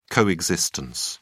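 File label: "co-existence", English, British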